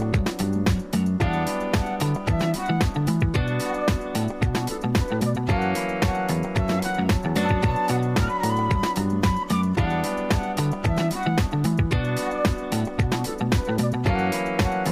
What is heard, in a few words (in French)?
message attente; ADEFI